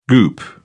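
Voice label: "goop", British English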